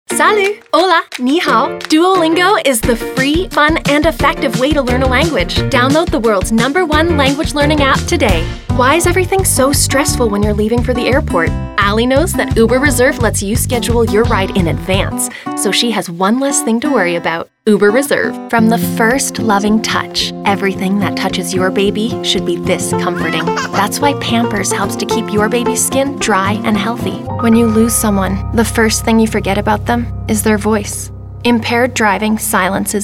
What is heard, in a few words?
female canadian neutral north american accent commercial compilation